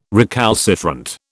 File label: "recalcitrant", British